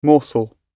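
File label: "morsel", British English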